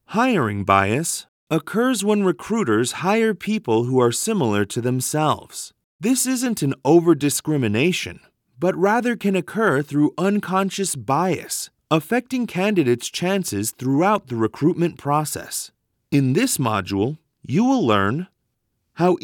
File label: Elearning